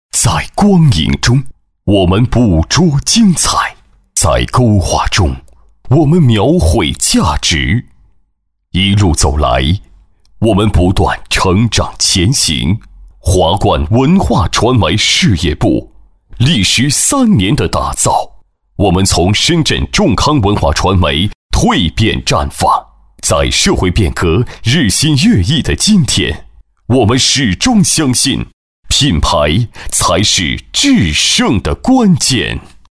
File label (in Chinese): 男, 企业宣传片, 大气饱满; 男202-厚重有力; 大气激昂